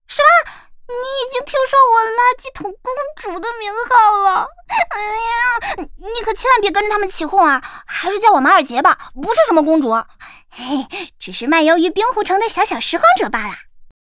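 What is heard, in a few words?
voice Chinese; Margie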